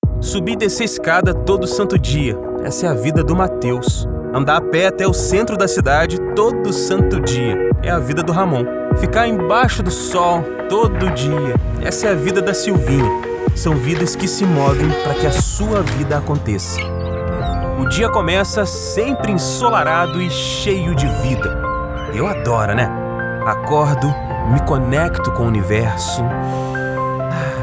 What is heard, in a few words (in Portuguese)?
NATURAL E CONVERSADO, LOCUTOR; locutor profissional